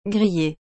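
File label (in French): "grille", French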